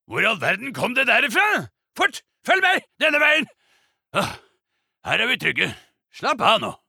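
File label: Norwegian dub, mann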